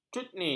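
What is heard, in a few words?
"cutni", Malay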